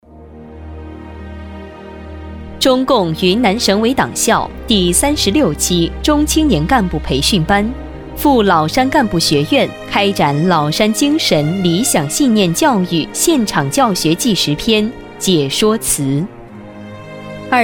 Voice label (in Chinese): 女 专题 沉稳大气; 中青年干部培训班